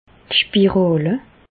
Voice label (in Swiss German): "spirale", Bas Rhin; medecine; stérilet dispositif intra utérin